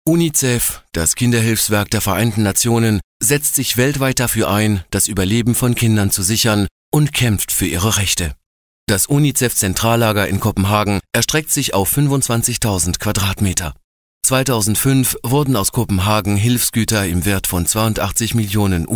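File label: German, male, e-learning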